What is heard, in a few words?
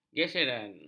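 "geseran", Malay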